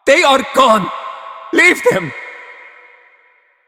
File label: Male; Warframe; Cetus Invasion; Villagers; en